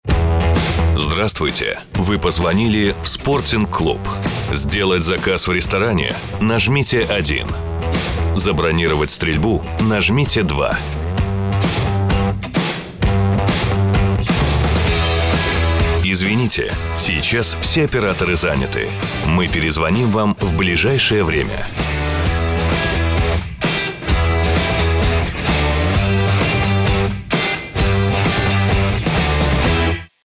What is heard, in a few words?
ivr; sportingklub